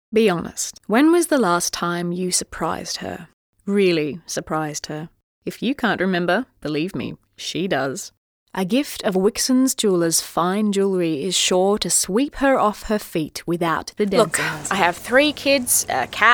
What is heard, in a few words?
voice demo; Actor, Voiceover; Location Melbourne